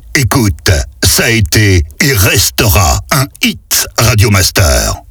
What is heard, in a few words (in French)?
Voix off; Radio Master; Ca été et restera un hit; Habillage